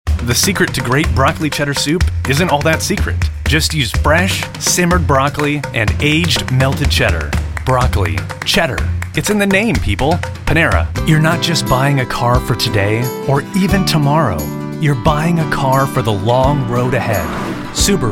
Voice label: Commecial Conversational Upbeat Dynamic